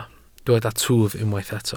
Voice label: "twf", Welsh